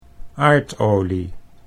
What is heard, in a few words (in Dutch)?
"aardolie", Dutch